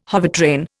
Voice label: "hovertrain", Indian